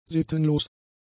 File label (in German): "sittenlos", german; adjectives